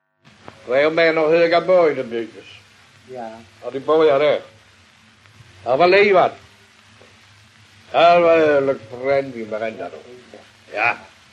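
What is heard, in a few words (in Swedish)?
Allerum Skåne, dialekter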